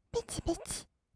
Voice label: "ペチペチ", kawaii voice; 1200 kawaii voice!!; 色々なワンショット

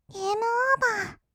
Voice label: "ゲームオーバー", kawaii voice; ASMR; 色々なワンショット; 1200 kawaii voice!!

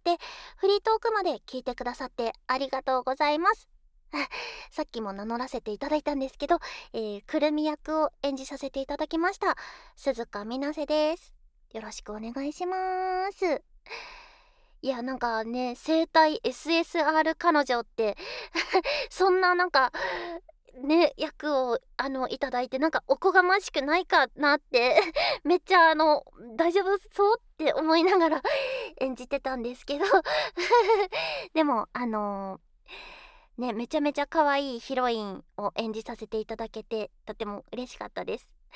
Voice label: フリートーク, ASMR

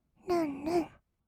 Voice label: "るんるん", kawaii voice; 1200 kawaii voice!!; 色々なワンショット

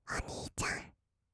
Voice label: "おにいちゃん", kawaii voice; ASMR; 二人称; 1200 kawaii voice!!

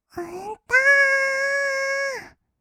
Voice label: だーーーっ, kawaii voice; ASMR; 1200 kawaii voice!!; 音ゲー

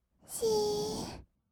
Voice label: 「しーっ」, kawaii voice; 1200 kawaii voice!!; 色々なワンショット

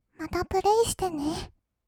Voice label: "またプレイしてねー", kawaii voice; ASMR; 1200 kawaii voice!!; 音ゲー

